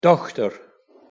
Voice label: "dochter", Scots